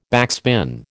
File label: "backspin", American